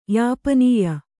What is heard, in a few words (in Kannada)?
"ಯಾಪನೀಯ", Kannada